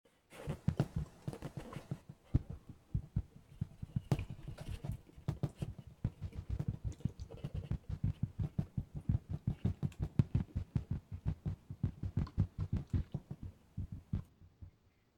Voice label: Scared